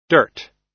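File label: "dirt", US English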